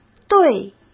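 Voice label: "队", Mandarin Chinese; chinese flashcards; hsk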